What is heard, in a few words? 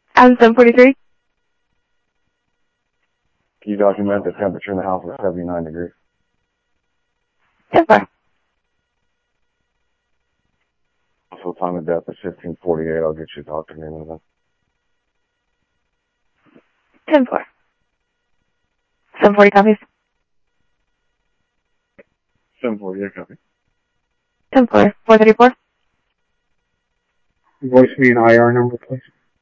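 Sheriff East County, scanner audio trunk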